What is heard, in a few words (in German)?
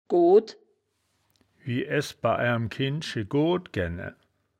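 "good", wittlicher woerterbuch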